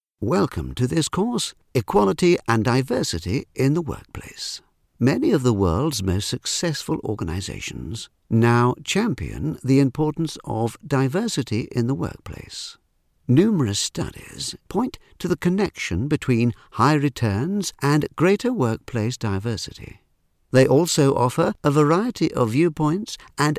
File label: E-Learning sample